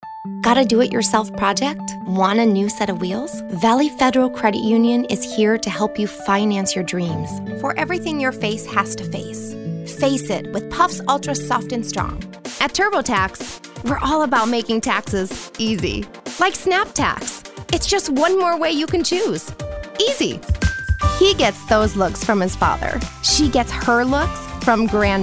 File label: COMMERCIAL